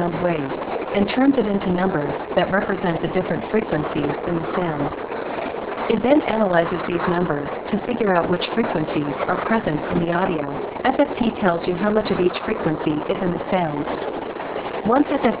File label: high voice noise, opus